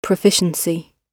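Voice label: "proficiency" British English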